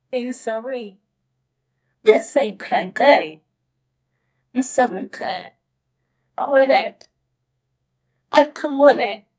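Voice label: director in a recording st, announcement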